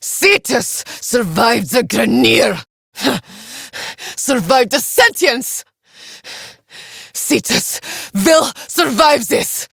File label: Villagers Fem en